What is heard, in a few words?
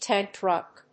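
"tank truck", English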